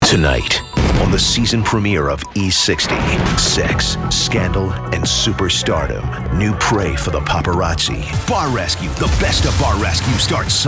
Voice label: promo rough men

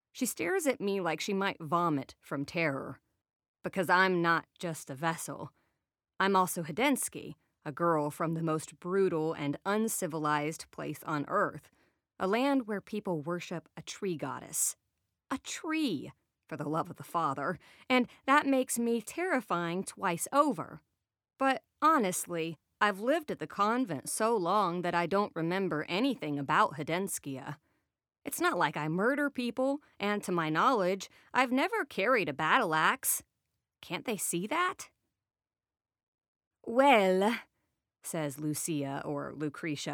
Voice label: audiobooks women fiction

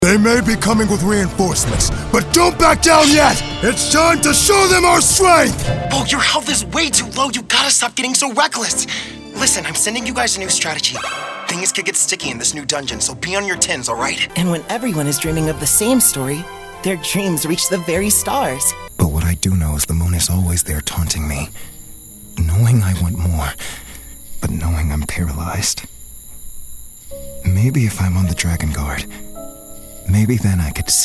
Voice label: animation men interactive

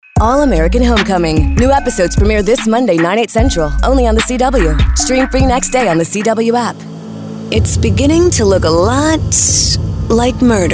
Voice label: promo women

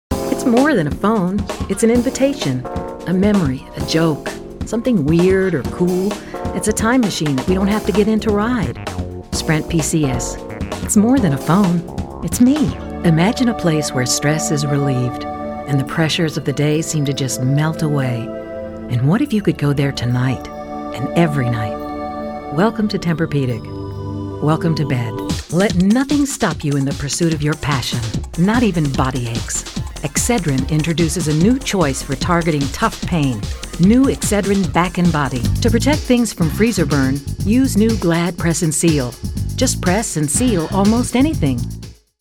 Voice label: celebrity commercial

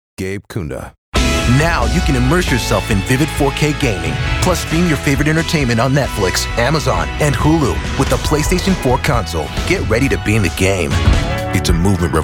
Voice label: commercial male

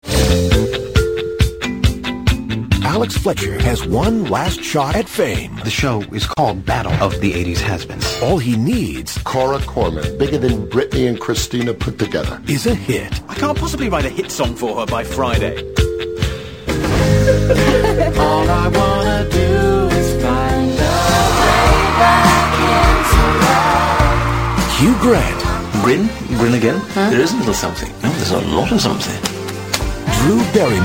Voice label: trailer

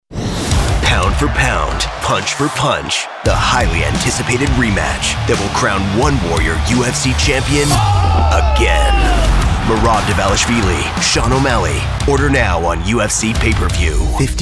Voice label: promo men sports

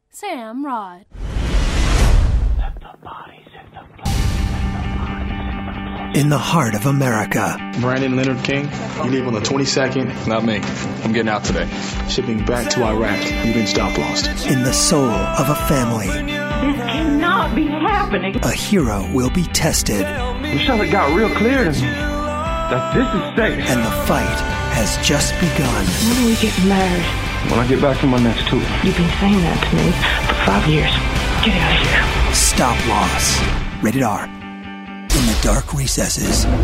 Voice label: trailer

